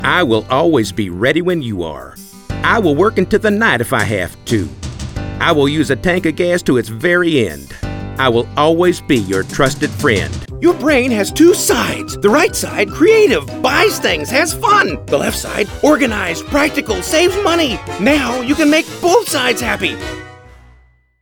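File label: Characters, Voice Actor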